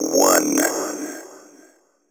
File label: techno male 140; Vocals